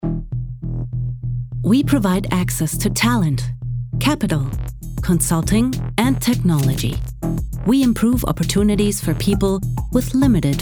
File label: CSR Social Englisch